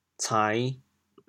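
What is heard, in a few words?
"cain5", Teochew, chaoyang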